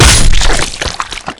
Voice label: zombi attack, zombie; left4dead2